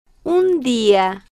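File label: "un Dia", slow, Spanish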